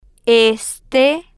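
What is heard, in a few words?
"este", Spanish, female, slow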